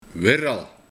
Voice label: "Wirral", Weeral; Vrouw

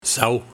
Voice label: "Zaw", de Buiting; Afwateringsgeul

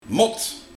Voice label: "Mot", Moet

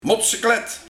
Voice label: "Motseklet", Moto

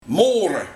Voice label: "Moore", Wroeten

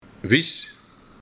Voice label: "Wis", Dun stokje uit de haag

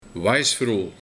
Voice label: "Waisvroo", Vroedvrouw

